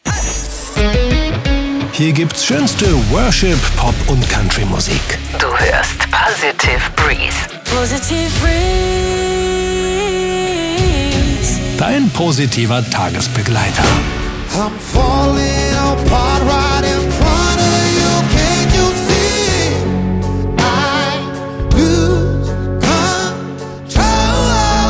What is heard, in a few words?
Sonic Imaging AI Montage